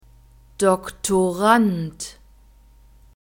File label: "doktorand", German; masculine